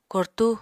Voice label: "kortú", Apatani